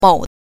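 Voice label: "mou", Mandarin Chinese pinyin, neutral tone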